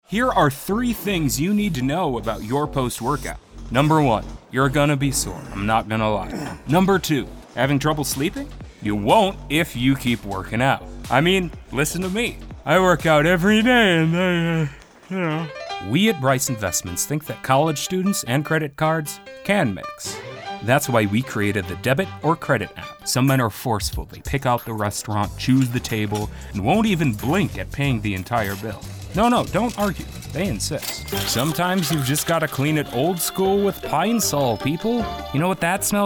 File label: Commercial